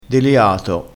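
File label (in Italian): "deliato", vocabolario dialettale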